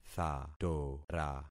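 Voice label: "thatora", concatenated syllables; Affixing experiment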